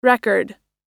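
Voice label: "record", American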